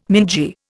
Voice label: "mingy", Indian